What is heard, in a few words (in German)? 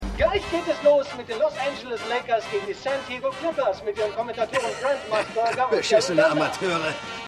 Kommentator; Winning Time